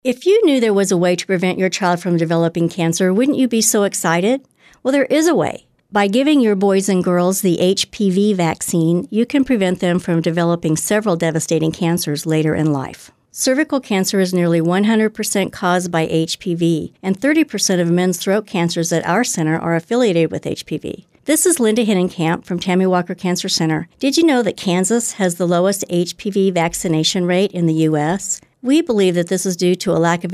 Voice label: Sample Radio Commercial